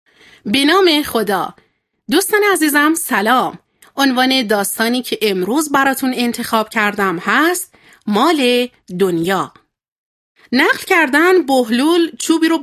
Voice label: Male